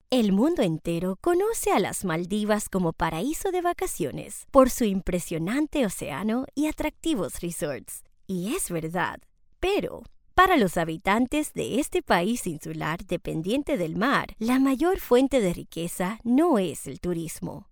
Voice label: locutora espanol neutro american spanish voiceover